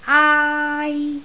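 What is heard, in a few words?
"aai1", Cantonese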